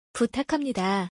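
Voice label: "please" Korean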